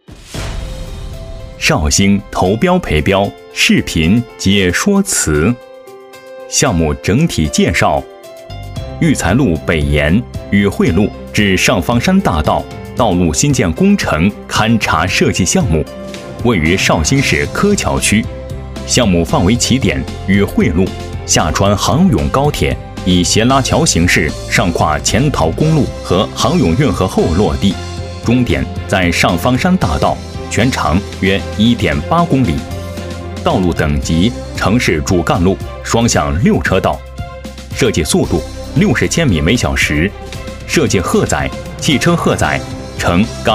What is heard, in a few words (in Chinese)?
男 多媒体 方案介绍